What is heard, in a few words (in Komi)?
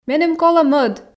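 меным колӧ мӧд, Komi, female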